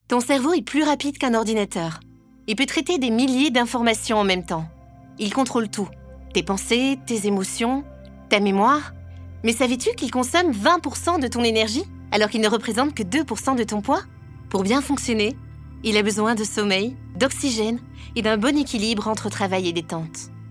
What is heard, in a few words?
French documentaries